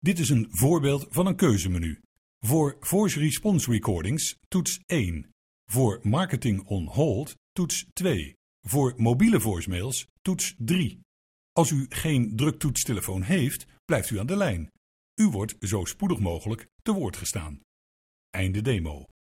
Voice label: Voice response